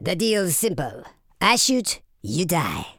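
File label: "yes sir", male villain voice; speech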